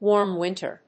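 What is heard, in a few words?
"Warm winter", English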